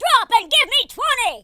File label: bummer, Drill Sergeant; speech